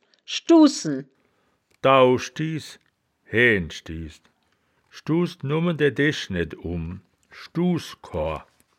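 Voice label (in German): "schduuh sen", wittlicher woerterbuch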